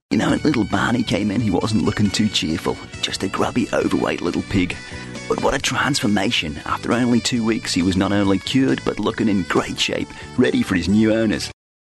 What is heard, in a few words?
Australian